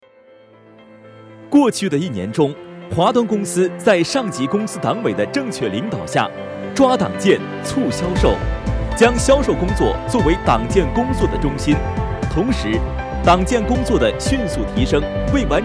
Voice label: 样音党建宣传片

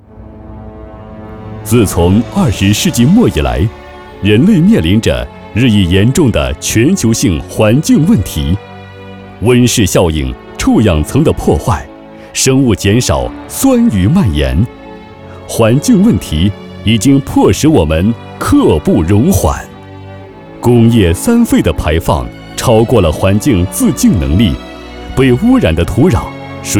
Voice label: 恢弘大气企业专题

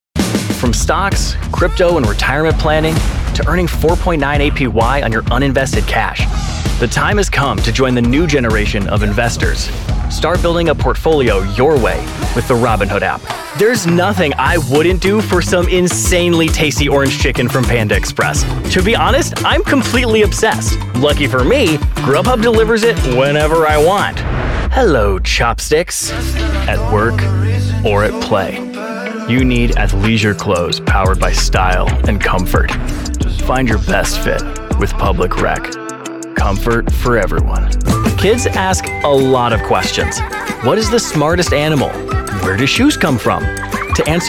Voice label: 年轻 商业

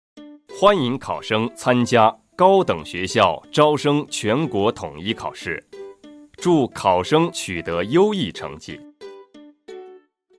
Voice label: 高考播报; 广州高考播报

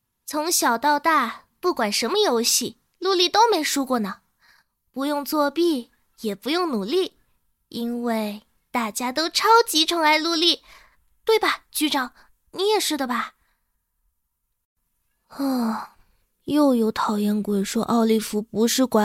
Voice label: 童声翻配

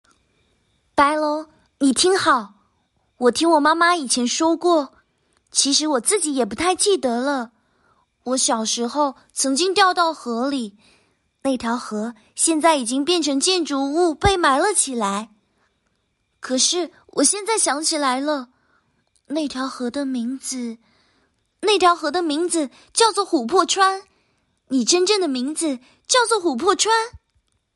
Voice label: 少女音; 千与千寻

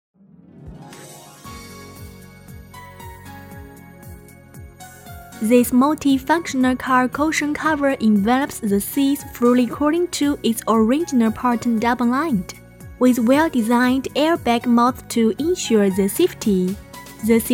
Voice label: 英文汽车广告欢快活泼配乐

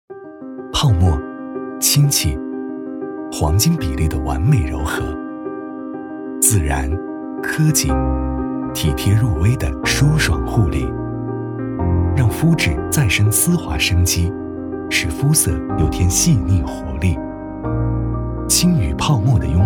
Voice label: 广告美容氢肤仪

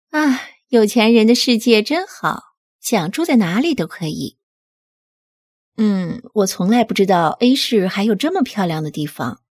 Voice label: 御姐音

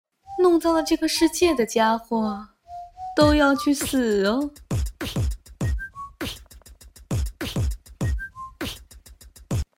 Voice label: 御姐, 女

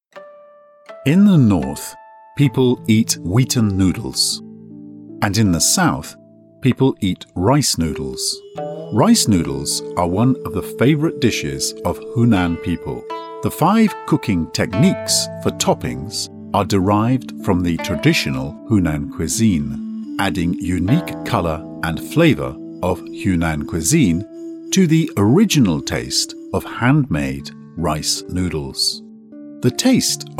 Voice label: 外籍 母语 男 厚重 纪录片

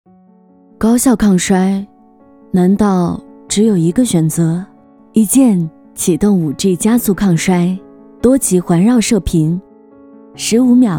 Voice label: 自然素人走心广告

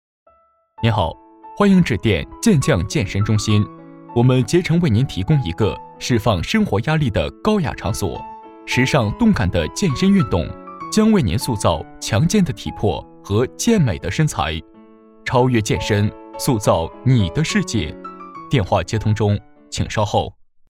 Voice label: 彩铃健身中心

